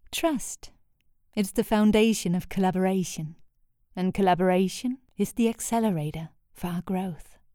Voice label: Explainer English; Trust Growth

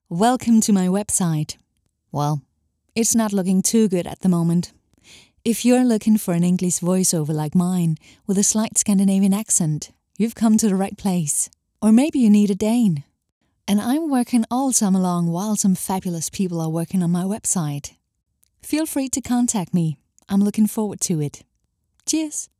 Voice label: English velkomst

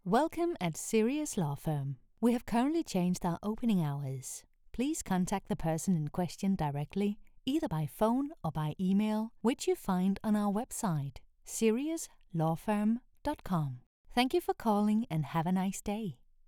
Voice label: IVR English; Sirius

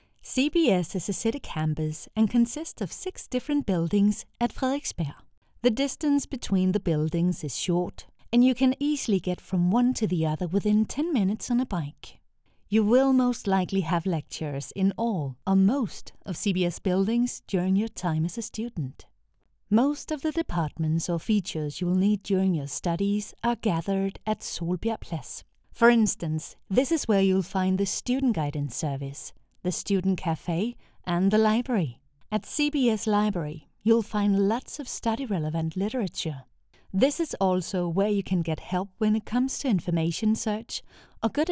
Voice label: Explainer English; CBS Campus

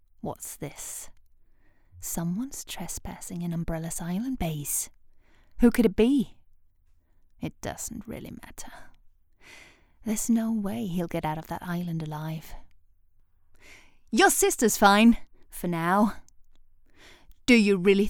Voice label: VILLAINESS GAME VOICE; RESIDENT EVIL

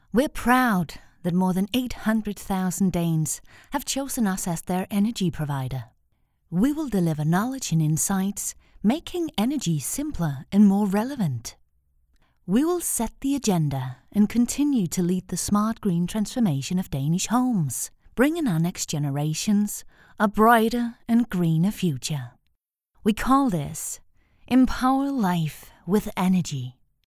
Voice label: Green Transformation English; ØRSTED

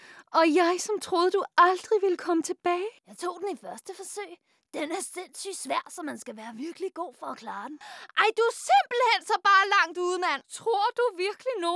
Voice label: Dubbing

